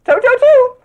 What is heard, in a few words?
jah jah joo, Voice gibbrish